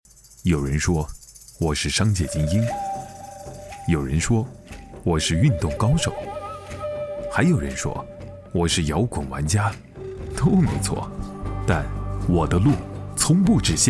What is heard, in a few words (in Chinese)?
男声 暖声 广告 品牌广告; 白领精英